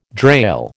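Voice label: "drail", American English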